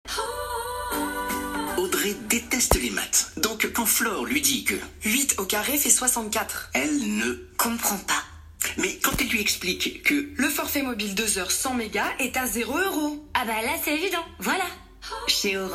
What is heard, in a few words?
pub radio